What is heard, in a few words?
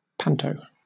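"panto", British English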